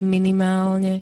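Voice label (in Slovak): "minimálne", Slovak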